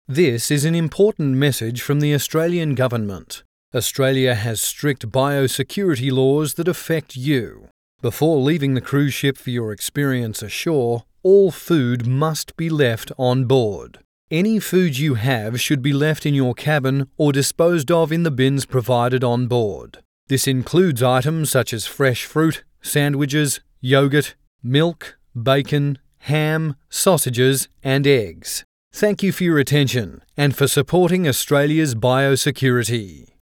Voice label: english shore excursion announcement; biosecurity; aircraft; passenger announcements